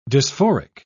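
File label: "dysphoric", British English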